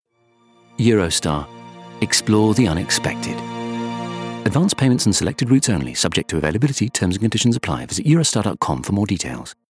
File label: VO Reel; Eurostar